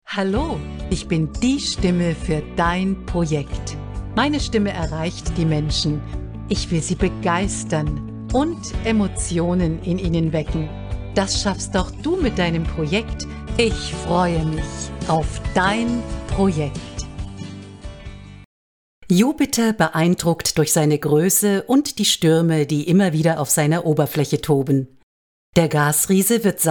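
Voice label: German, female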